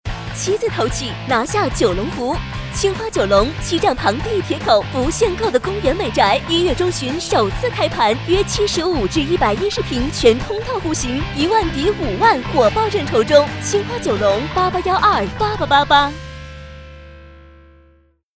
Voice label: 女 促销 地产

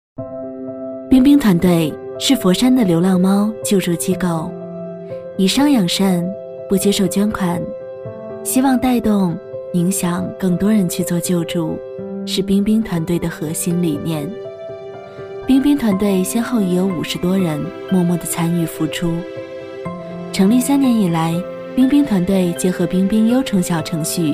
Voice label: 女 公益 温柔 甜美 自然 素人; 流浪猫救助基地

